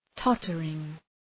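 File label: "tottering", English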